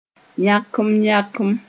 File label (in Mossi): "yãkem-yãkem", moore